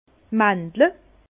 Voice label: "mandel", Bas Rhin; vin; amande

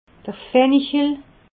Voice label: "fanichel", alsace

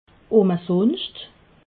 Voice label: "umesunscht", alsace

